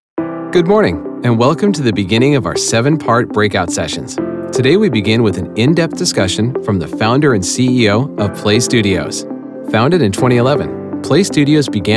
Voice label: conversational announcer